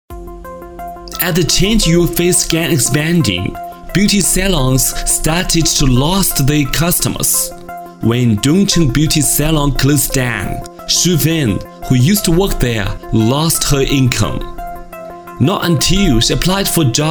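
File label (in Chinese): 男声英式英文人物报道; 中国人物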